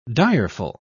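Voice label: "direful", British English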